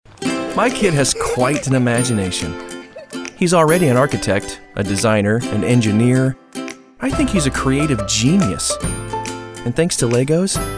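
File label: Friendly Fatherly, British English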